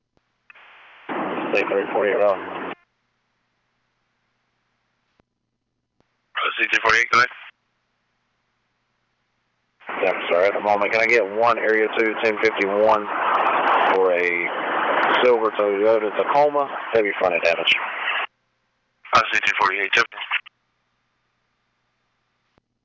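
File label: P25 dispatch radio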